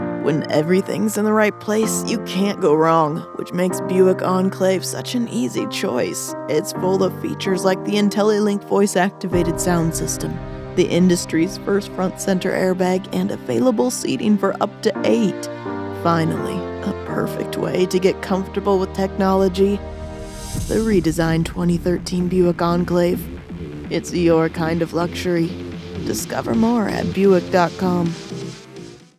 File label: smooth calm textured